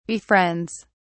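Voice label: "befriends", en, uk British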